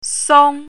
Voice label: "song1", Chinese pinyin

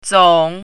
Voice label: "zong3", Chinese pinyin

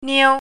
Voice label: "niu1", Chinese pinyin

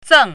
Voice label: "zeng4", Chinese pinyin

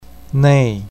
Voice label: "nei4", Chinese pinyin

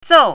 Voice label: "zou4", Chinese pinyin

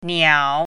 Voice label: "niao3", Chinese pinyin

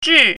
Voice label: "zhi4", Chinese pinyin

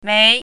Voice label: "mei2", Chinese pinyin

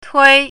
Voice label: "tui1", Chinese pinyin

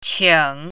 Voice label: "qing3", Chinese pinyin

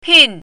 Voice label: "pin4", Chinese pinyin

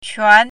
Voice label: "quan2", Chinese pinyin

